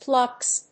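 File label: "plucks", English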